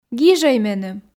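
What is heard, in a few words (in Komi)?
"гижӧй меным", Komi, female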